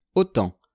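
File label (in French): "autant", French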